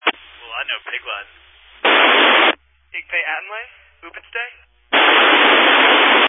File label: Family Radio Service (FRS)